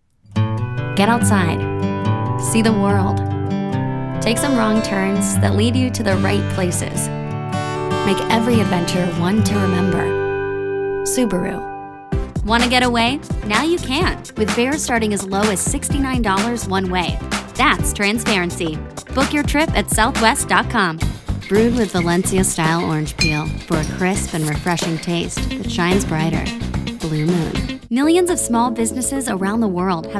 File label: Commercial Voiceover